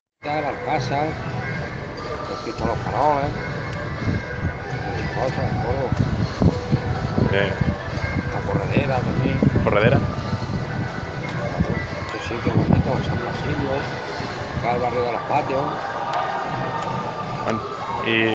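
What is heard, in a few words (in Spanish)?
Entrevista Caballista; Yincanas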